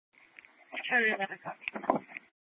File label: Police Department, Dispatch; Mesa Police Department; 1 Fiesta Dispatch